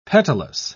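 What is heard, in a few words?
"petalous", British English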